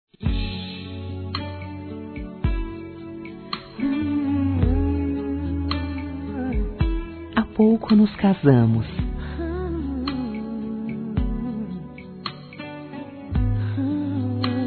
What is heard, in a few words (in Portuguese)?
DIA DOS NAMORADOS F, fem; Telemensagens 2017